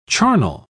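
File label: "charnel", British English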